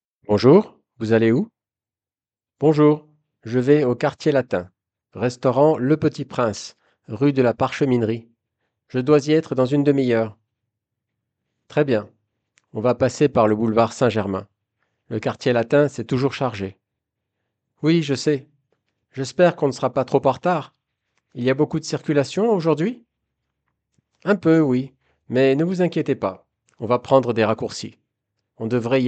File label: french conv in a taxi dialogue; conversation in french in a taxi